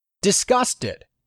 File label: "disgusted", English; 3000 words